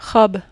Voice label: "robe", French

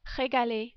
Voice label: "regaler", French

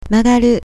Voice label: "magaru", Japanese

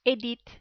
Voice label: "Edith", French

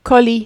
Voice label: "colis", French

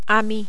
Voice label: "ami", French

